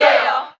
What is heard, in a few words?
"yeah" group of people